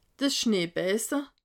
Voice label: "schneebese", alsace

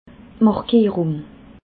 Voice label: "markierung", alsace

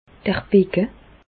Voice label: "becke", Bas Rhin; medecine; bassin

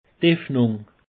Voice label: "effnung", alsace; peche

